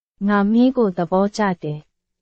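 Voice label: Burmese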